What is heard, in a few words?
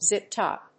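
"zip-top", English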